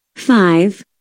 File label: "5", usa